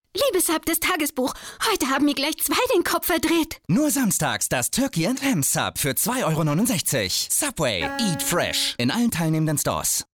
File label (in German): Werbung Hysterisch; Subway; LOFT VOICES